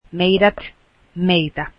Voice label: us, finnish